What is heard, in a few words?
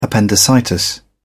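"appendicitis", British English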